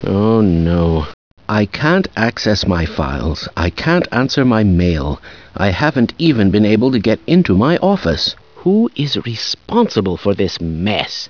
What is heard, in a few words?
angry